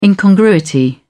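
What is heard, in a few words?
"incongruity", British English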